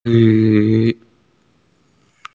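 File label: "sen1lin2", Chinese, female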